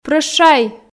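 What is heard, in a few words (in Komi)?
"прӧщай", Komi, female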